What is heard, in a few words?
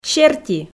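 "серти", Komi, female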